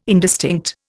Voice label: "indistinct", Indian